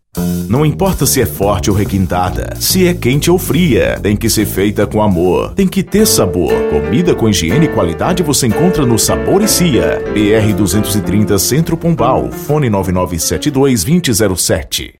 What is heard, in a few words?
spot; sabor cia